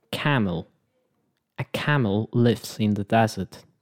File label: "camel", angielski native speaker; e sound